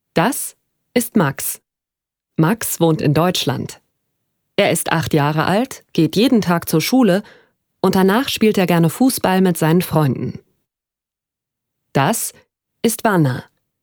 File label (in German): Erklaerfilm OHNE MUSIK; Kinderarbeit